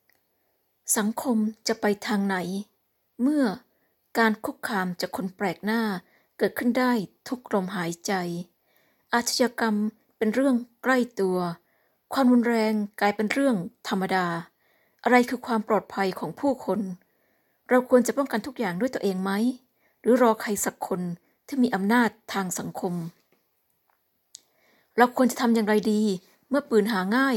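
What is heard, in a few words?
Thai General; GoLocalise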